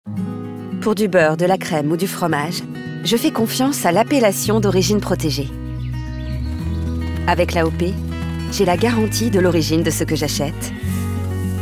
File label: French Commercial